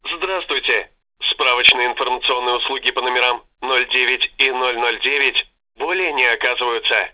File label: Russian Sluzba 09; Zagranica